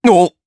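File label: Vox, voices, Japanese; Neraxis; kings raid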